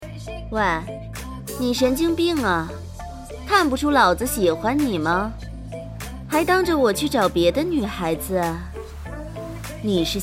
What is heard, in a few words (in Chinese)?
御姐音